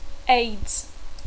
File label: "AIDS", English